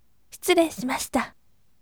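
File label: voice, gentle; shiturei shimashita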